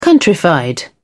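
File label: "countrified", British English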